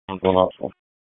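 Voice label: FIRE Operations, p25; FIRE OPS 1; Wake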